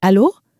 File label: "allo", french